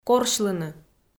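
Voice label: "корсьлыны", Komi, female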